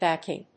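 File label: "backing", English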